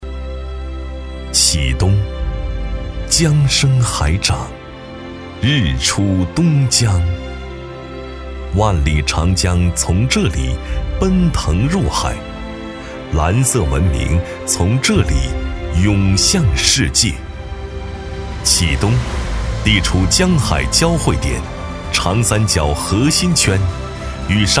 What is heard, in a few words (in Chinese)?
男 大气舒缓; 启东